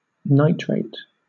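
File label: "nitrate", British English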